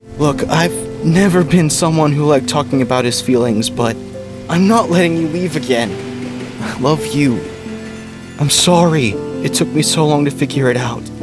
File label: character demo